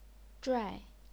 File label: "zhuai4", chinese pinyin